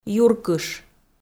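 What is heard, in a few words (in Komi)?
"юркыш" Komi, female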